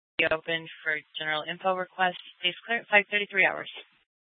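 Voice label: Police Department North Dispatch, scanner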